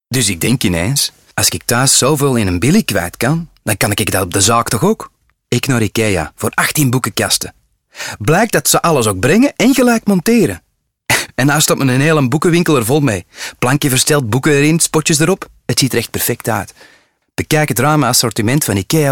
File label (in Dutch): Narration Flemish; Ikea